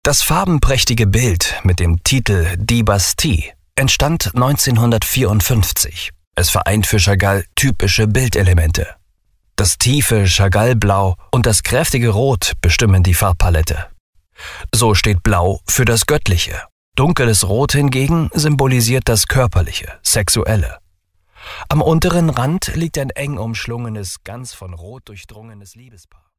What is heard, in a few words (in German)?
Museumsguide; schleswig-holstein-haus schwerin; marc chagall